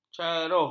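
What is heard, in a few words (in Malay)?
"ceruh", Malay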